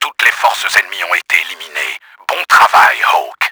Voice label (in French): ENEMY DESTROYED, french; Battle Engine Aquila; XBOX